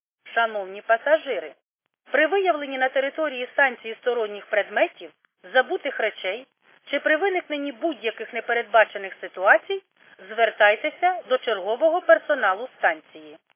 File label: khs st female, subway station